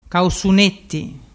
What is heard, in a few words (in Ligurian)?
"causunetti", dialettu